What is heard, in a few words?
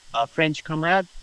Voice Acting, es